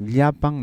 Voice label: "llapan", Cusco Quechua